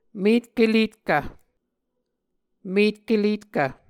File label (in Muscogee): "metkeletkv", Muscogee